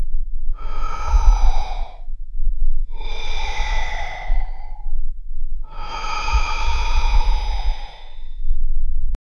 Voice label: loopable creepy male whis, whisper